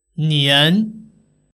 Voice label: "nian", Chinese; days

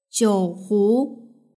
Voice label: "jiuhu", Chinese

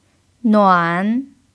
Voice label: "nuan3", chinese pinyin

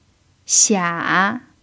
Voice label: "xia3", Chinese pinyin

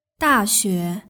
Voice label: "daxue", Chinese; gaokao

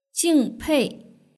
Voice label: "jingpei", Chinese

